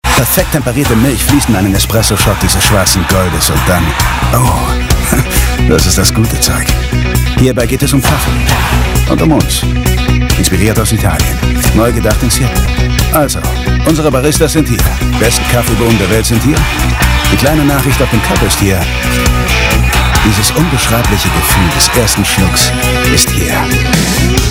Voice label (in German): Starbucks Werbung, German